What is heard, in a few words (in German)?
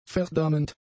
"verdammend", German; adjectives